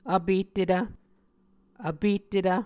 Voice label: "vpettetv", muscogee

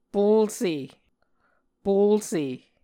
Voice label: "polse", Muscogee

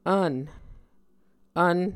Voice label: "vn", Muscogee

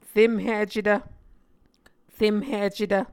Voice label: "remhicetv", muscogee

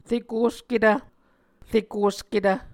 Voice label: "rekosketv", muscogee

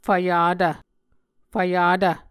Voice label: "fvyata", Muscogee

